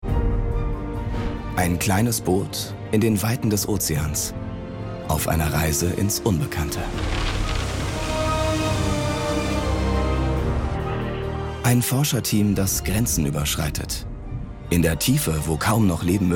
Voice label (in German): TV Off Erzaehler; arte